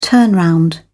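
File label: "turnround", British English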